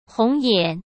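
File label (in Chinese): "红眼", Mandarin Chinese; hsk; chinese-flashcards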